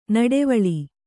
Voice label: "ನಡುವಳಿ", Kannada